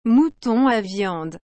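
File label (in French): "mouton à viande", French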